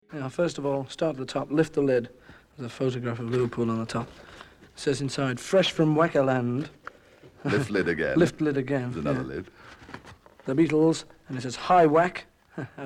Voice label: Spoken Word